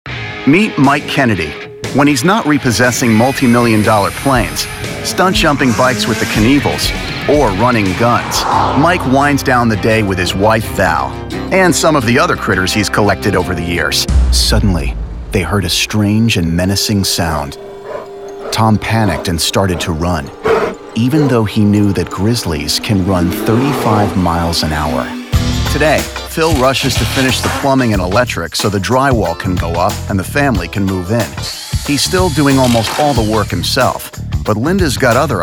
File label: TV Narration